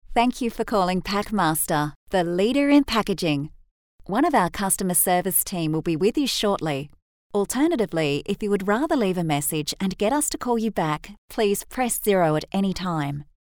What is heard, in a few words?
On Hold